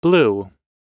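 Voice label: "blew", American English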